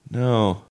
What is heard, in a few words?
confused no